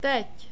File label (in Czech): "teď", czech